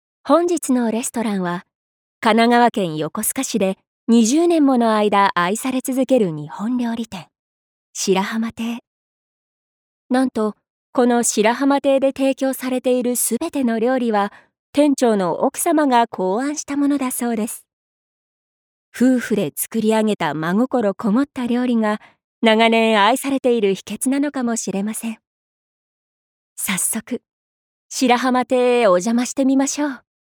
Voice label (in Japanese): ナレーション